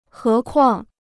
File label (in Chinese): "何况", Chinese